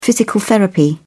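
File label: "physical therapy", British English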